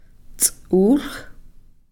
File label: "ts'ulx", dentalia